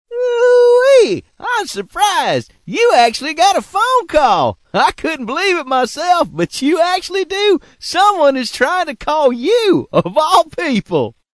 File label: redneck call